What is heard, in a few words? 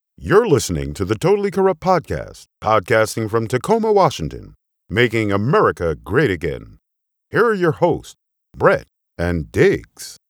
Radio show opening